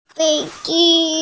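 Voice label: "fei1ji1", Mandarin Chinese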